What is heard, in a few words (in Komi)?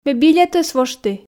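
ме билетӧс вошті, Komi, female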